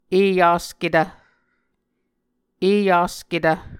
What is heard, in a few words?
"eyasketv", muscogee